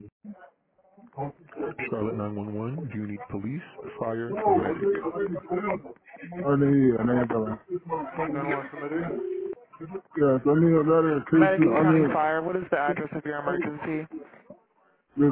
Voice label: Deadly Light Rail Stabbing 911 Call